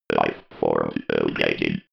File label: robot voice lifeforms located